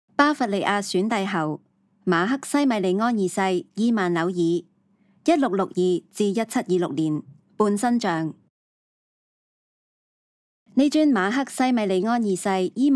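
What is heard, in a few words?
Cantonese, audio guide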